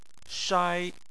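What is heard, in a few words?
"shai" tone 1, Chinese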